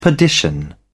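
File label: "perdition", British English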